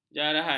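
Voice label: "jarahan", Malay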